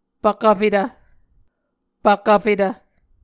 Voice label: "pvkvfetv", Muscogee